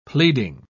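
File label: "pleading", British English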